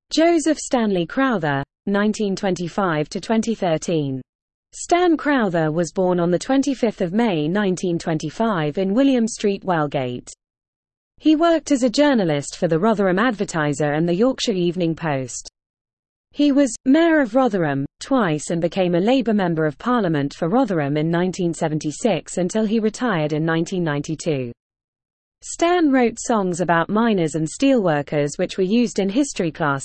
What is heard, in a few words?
Female; Stan Crowther